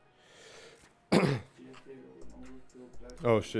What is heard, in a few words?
recordin Mic Input; flstudio; Vocals